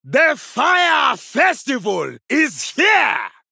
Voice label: male troll; fire festival